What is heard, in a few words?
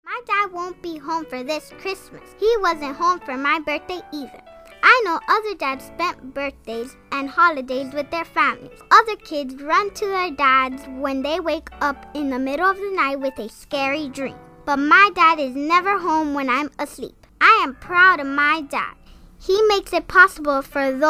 child voice over